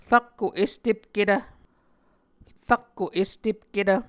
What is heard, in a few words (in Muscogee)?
"rakko estepketv", Muscogee